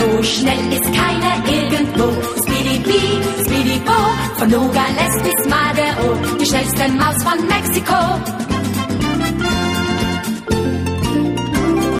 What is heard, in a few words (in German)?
ringtones